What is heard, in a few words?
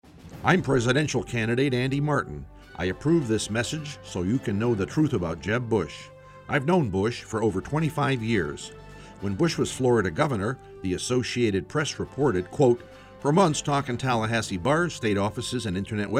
radio spot